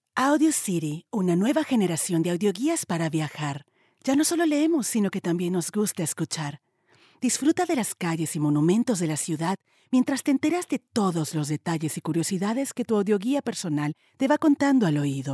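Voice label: Spanish Latin America female voice over, upbeat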